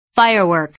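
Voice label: "firework", English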